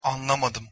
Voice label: "anlamadim", Turkish

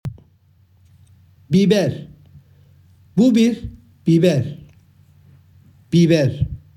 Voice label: "biber", Turkish; words for fruits and vegetables in turkish

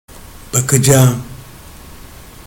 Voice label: "bakacagim", Turkish

